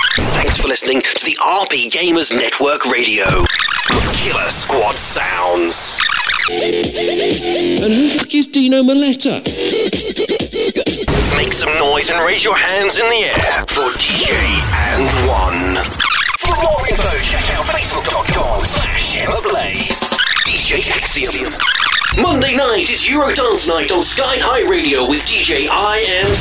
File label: urban